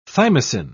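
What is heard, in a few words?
"thymosin", British English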